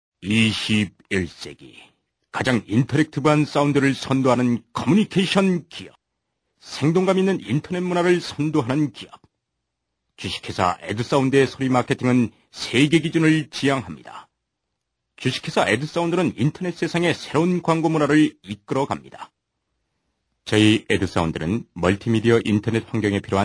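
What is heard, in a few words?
Korean male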